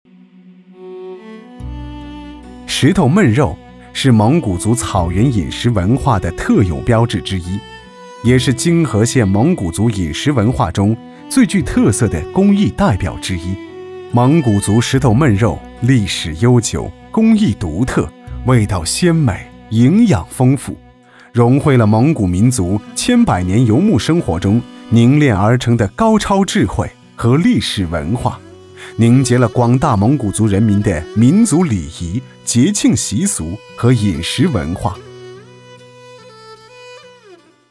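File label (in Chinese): 男国大音-记录-申遗-石头焖肉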